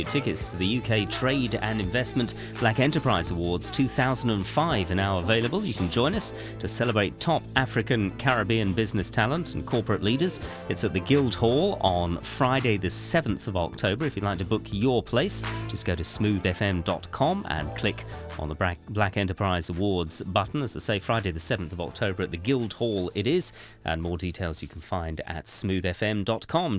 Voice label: Radio Ad; Tickets